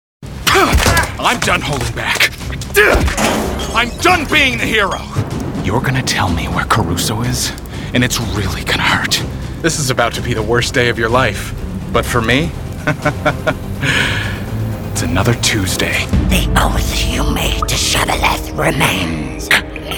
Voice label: english american video games